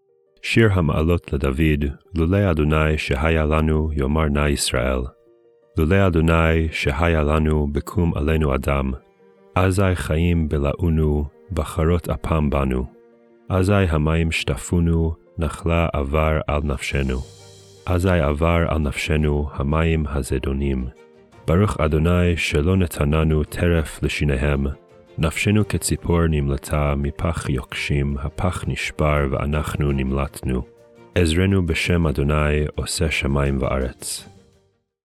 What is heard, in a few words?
poetry and prayer psalm hebrew